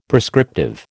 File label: "prescriptive", American